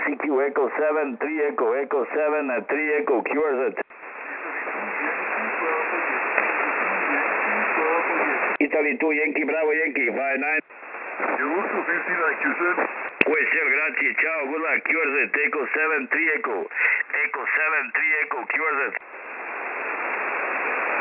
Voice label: qso; 14216khz